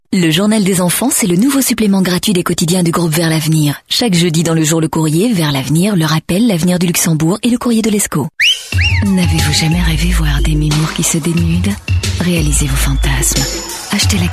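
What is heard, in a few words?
Belgian; Flemish, French-Belgian, Male, Home Studio, 20s-30s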